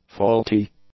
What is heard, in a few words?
"faulty", english; adjectives